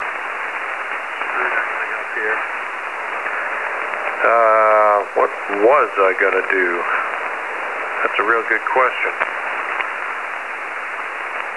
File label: 3490 kHz; Undercoverradio